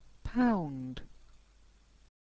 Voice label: "pound", English